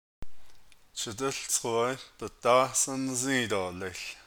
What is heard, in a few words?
You wait for the yellow bus, Navajo